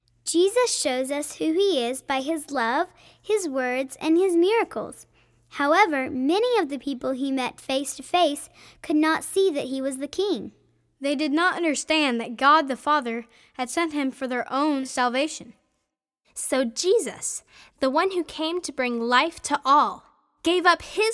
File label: Narration